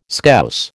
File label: "Scouse", American